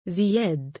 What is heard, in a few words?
male; ziyaad; Arabic